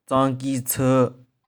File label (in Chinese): "tsong1ki3tsheu3", 玉環